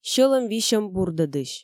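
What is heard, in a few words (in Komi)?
сьӧлӧм висьӧм бурдӧдысь, Komi, female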